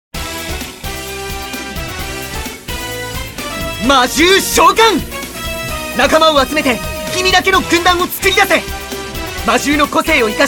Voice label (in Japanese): ナレーション